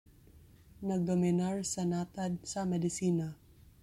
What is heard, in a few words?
Cebuano; dominating the medical field - nagdominar sa natad sa medisina